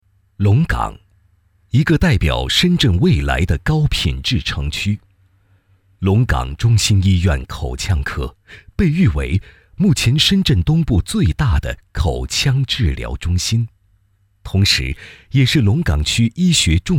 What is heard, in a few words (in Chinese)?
男国 专题 医院 讲述; 深圳市龙岗中心医院